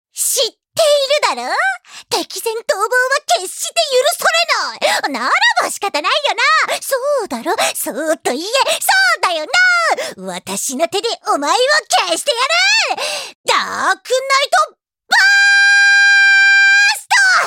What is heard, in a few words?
female